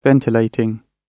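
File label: "ventilating", British English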